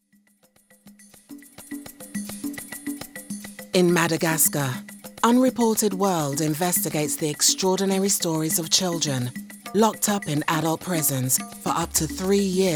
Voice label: english british narration